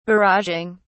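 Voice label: "barraging", British English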